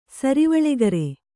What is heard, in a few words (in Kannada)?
"ಸರಿವಳೆಗರೆ", Kannada